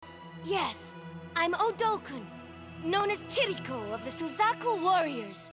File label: english; fushigiyuugi; chiriko